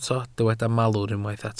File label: "malwr", Welsh